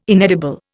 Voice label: "inedible", Indian